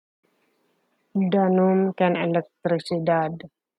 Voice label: Ilocano; water and electricity - danum ken elektrisidad